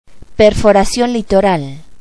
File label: "offshore drilling", Spanish